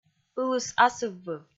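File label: "Ылыс Асыввыв", Komi, female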